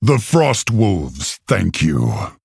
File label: Male Orc Thanks